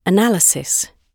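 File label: "analysis", British English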